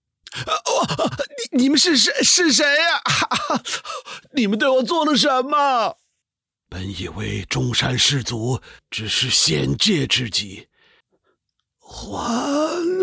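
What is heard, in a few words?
Mandarin Male Gaming character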